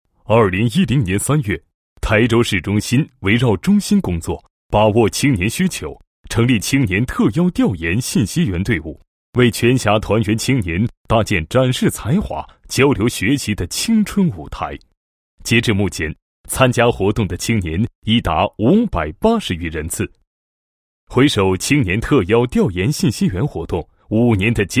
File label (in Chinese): 男 汇报; 创建省级廉政文化示范点工作情况汇报